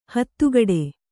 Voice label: "ಹತ್ತಗಡೆ", Kannada

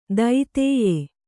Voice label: "ದೈತೇಯೆ", kannada

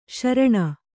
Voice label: "ಶ್ರಾಣ", Kannada

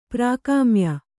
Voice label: "ಪ್ರಾಕಾಮ್ಯ", Kannada

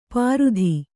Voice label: "ಪಾರುಧಿ", Kannada

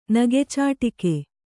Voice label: "ನಗೆಚಾಟಿಕೆ", Kannada

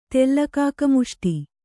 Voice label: "ತೆಲ್ಲಕಾಕಮುಷ್ಟಿ", Kannada

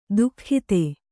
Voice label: "ದುಃಖಿತೆ", Kannada

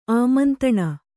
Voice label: "ಆಮಂತಣ", Kannada

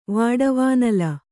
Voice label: "ವಾಡವಾನಲ", Kannada

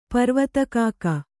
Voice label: "ಪರ್ವತಕಾಕ", Kannada

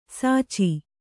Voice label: "ಸಚಿ", Kannada

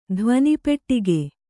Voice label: "ಧ್ವನಿಪೆಟ್ಟಿಗೆ", kannada

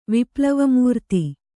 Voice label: "ವಿಪ್ಲವಮೂರ್ತಿ", kannada

